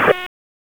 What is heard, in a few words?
Raleigh Police Northeast District Tac, p25; wake